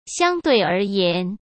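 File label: "相对而言", Mandarin Chinese; chinese flashcards; hsk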